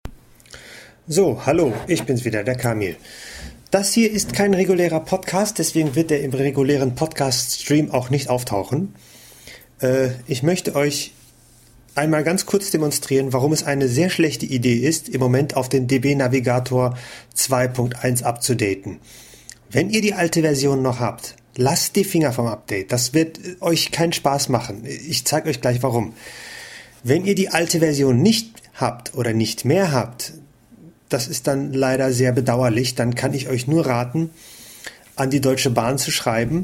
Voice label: Voice Over; DBNavigator